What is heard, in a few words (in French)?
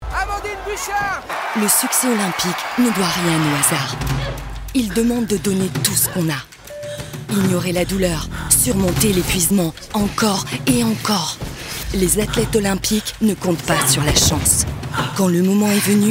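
Pub TV, Voix Off Femme; Jeux Olympiques 2024 Bridgestone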